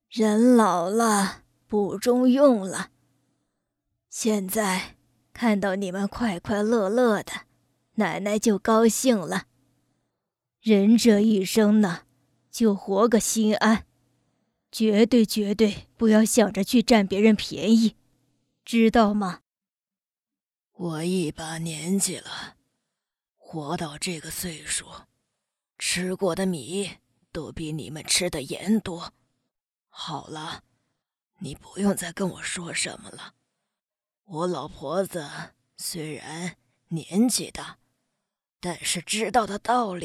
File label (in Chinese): 女, 模仿 老太